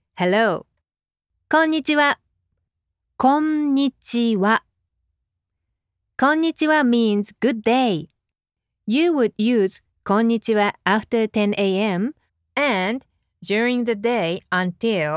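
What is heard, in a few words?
female, Japanese, accented English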